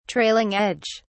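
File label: "trailing edge", British English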